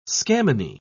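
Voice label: "scammony", British English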